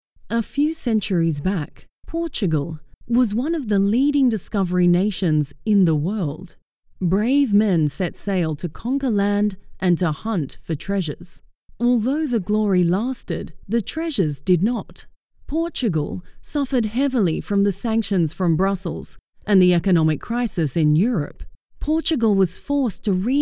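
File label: narration; StartUpTV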